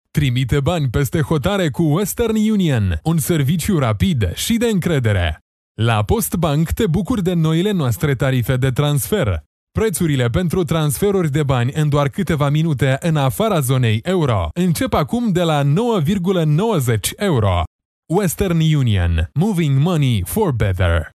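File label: romanian male commercial; voice demos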